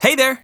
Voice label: VO en